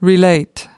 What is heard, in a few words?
"relate", US